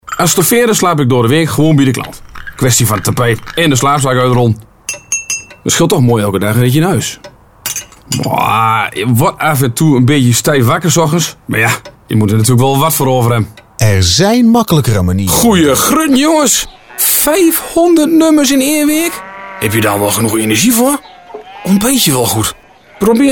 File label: Voice of Twente